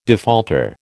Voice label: "defaulter", American